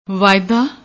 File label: "vaida", Punjabi; ਵਾਅਦਾ